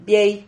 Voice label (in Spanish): "iei", Silabas